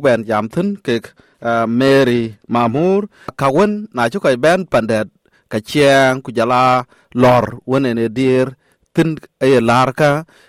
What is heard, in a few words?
dinka, podcast; SBS Dinka